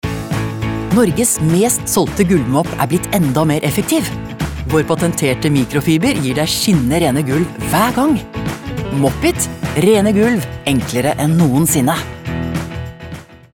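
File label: Norwegian upbeat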